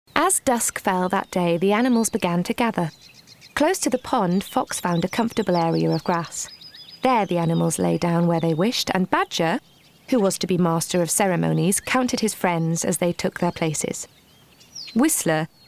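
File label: narration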